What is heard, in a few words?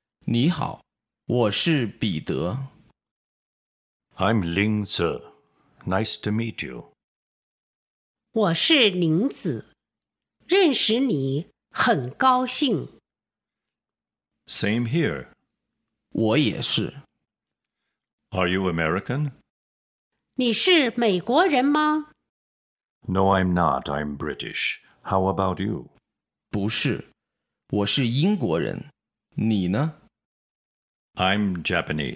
Dialog